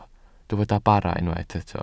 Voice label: "bara", Welsh